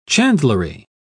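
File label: "chandlery", British English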